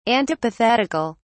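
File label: "antipathetical", British English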